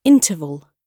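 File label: "interval", British English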